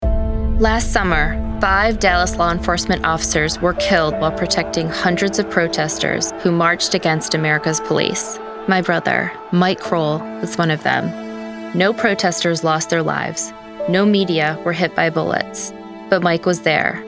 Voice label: radio; honoring americas police